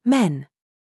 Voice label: DRESS TRAP, minimal pairs